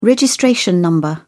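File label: "registration number", British English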